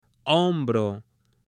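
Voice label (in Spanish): "hombro", male; CUERPO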